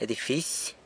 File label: "edifisy", San Lucas Quiavini; building